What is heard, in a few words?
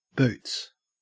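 "boots", Australian English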